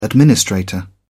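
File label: "administrator", British English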